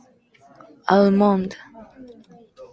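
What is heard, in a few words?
"almond", Japanese, female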